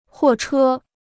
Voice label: "货车", Chinese

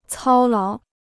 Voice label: "操劳", Chinese

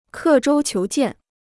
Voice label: "刻舟求剑", Chinese

